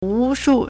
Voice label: "wu2shu4", Chinese